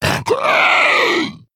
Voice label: Male Quilboar Death

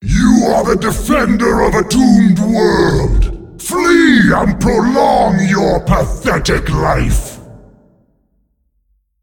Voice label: Male Demon; Big Anetheron; Cariel

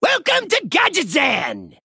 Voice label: Male Goblin Play

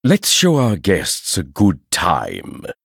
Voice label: Male Human Play

